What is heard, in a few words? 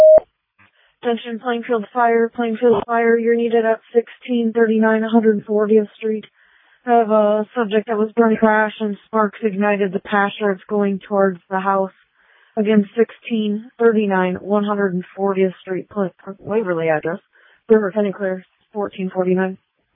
Fire, dispatch